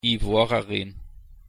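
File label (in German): "ivorerin", German; pronunciation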